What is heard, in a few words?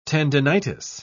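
"tendinitis", British English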